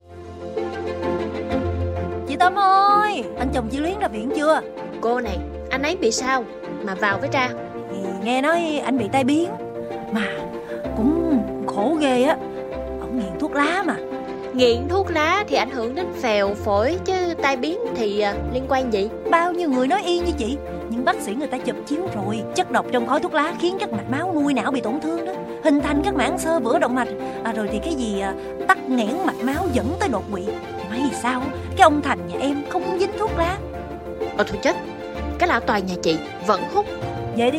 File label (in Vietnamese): giọng nam; ĐỪNG ĐỂ TRẢ GIÁ ĐẮT; clip phat thanh ve phong chong tac hai cua thuoc la 2024